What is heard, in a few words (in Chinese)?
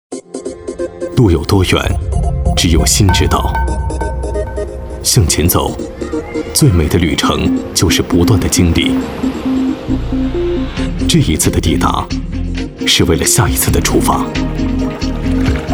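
男国大音-广告; BMW5系li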